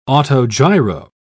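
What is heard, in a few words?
"autogiro", British English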